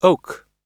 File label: "oak", oxford